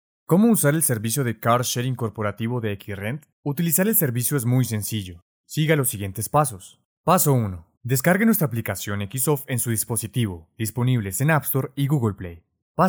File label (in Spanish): institucional